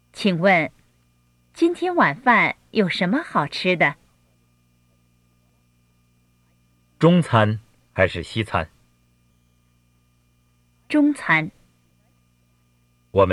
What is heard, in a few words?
Dialogue Slow Speed